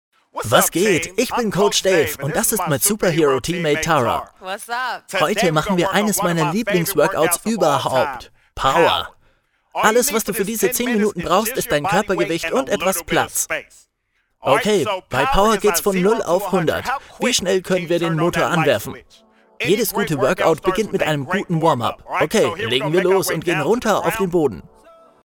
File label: Voiceover; Nike